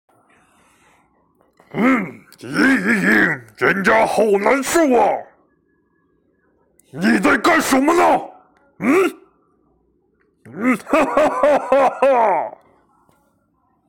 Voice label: 凶残

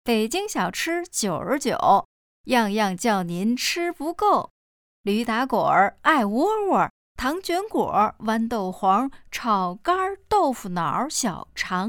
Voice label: 京片子北京小吃

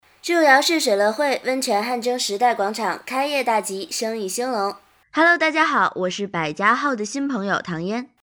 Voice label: 明星模仿; 唐嫣

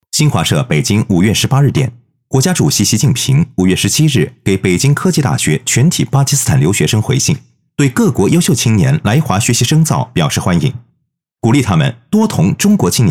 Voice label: 样音新闻; 习近平回信

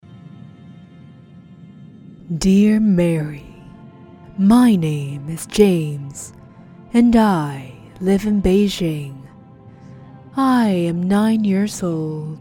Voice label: 英御姐女王

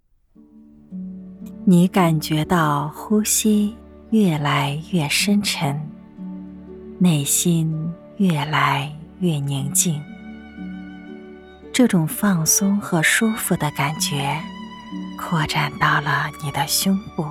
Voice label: 冥想试音

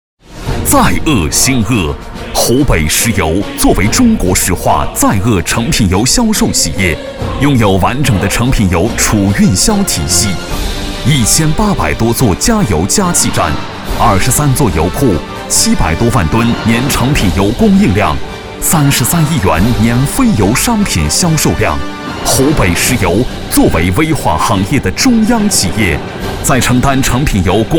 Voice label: 激情力度; 中国石化

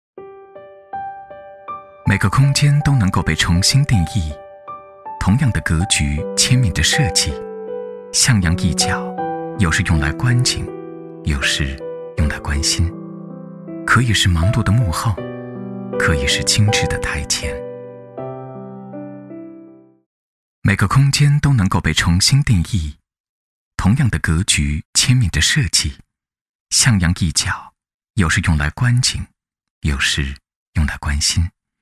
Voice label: 叙述高级调性空间

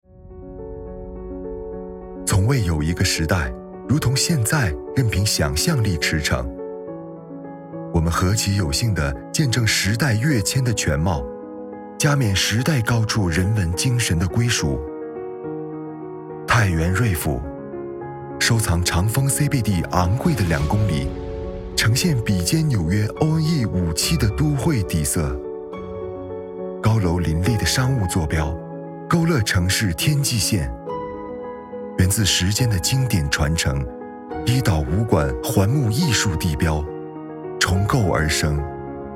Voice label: 宣传高端感; 太原瑞府

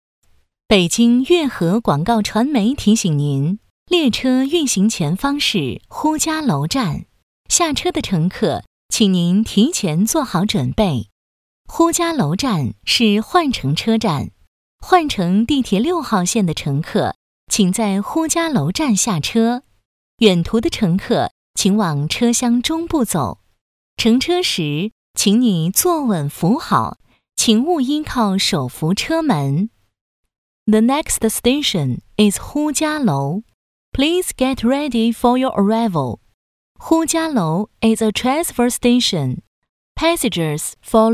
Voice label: 语音报站 双语

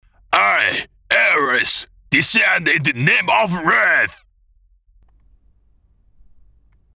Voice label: 英文角色; 阿瑞斯

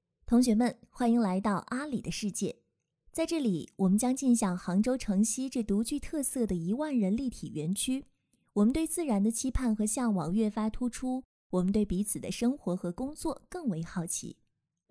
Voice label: 宣传片配音; 阿里宣传片配音缩混

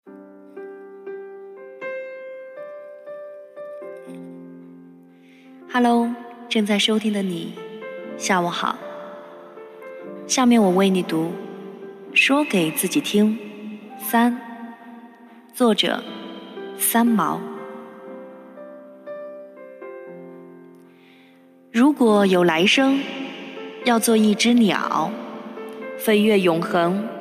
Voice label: 读书

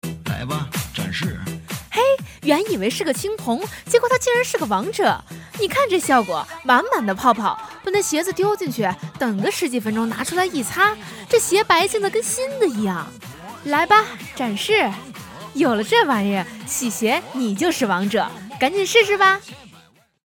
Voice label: 女; 洗白鞋

